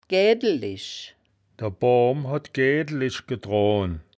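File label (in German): "gaeaedelisch", wittlicher; gäädelisch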